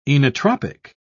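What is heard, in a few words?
"inotropic", British English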